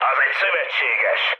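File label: player friendly fire, mood med, Hungarian; War Thunder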